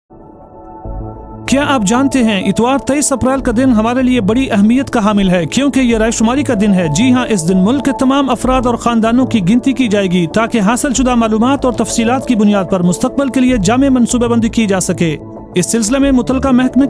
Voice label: Urdu; public awareness campaign; census; An intensive public awareness campaign on TV, radio, newspaper and outdoor; broadcast on Sunrise Radio (at 94.9 FM) - a Multi-cultural Radio Station